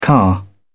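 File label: "car", English, British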